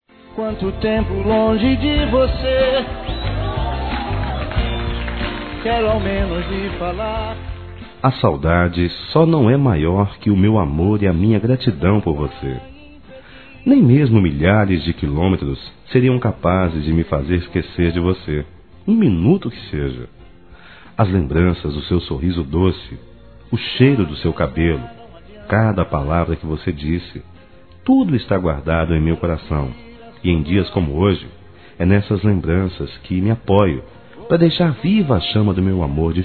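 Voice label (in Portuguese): SAUDADES MÃE, Masculino; Dia das Mães; Telemensagens 2017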